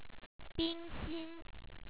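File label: "冰心", Chinese; bi1ngxi1n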